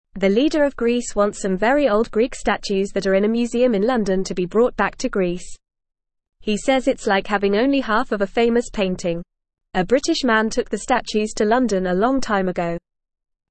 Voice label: English Lower Intermediate FAST Reading; Greece wants old statues back from London museum

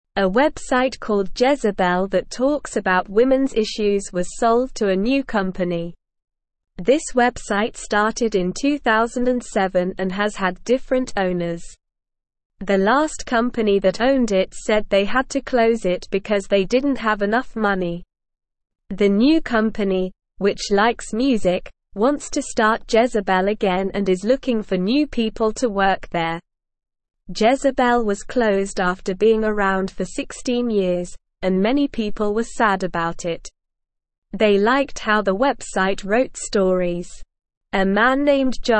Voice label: English Newsroom Lower Intermediate SLOW Reading; Jezebel is Coming Back New Company Buys It

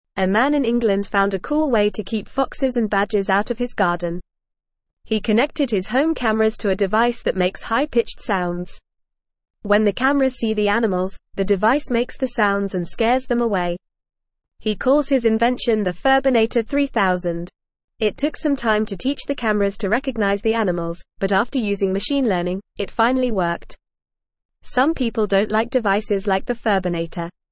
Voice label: English Newsroom Upper Intermediate FAST Reading; Home Camera System Uses High Frequency Sounds to Re

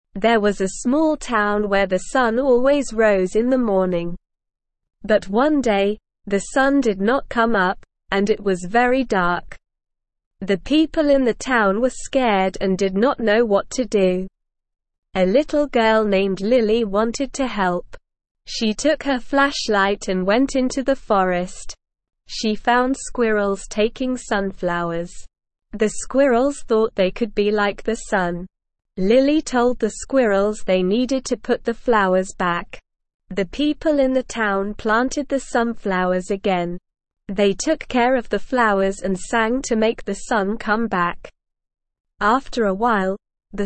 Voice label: ESL Short Stories for Kids Beginner SLOW Reading; The Day the Sun Forgot to Rise

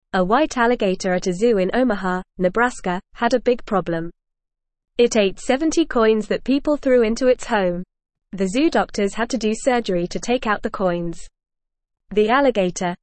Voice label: English Newsroom Lower Intermediate FAST Reading; White Alligator Eats Coins Zoo Doctors Help

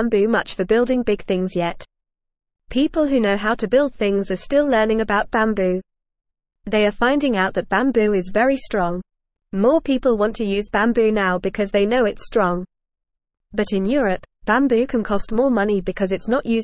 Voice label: English Newsroom Lower Intermediate FAST Reading; Bamboo A Strong and Eco Friendly Building Material

